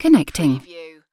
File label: "connecting", UK female; lynne publishing